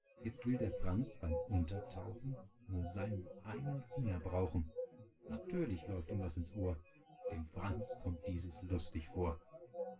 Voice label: test male synthconv